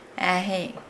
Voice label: Nukuoro; when